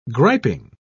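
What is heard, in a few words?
"griping", British English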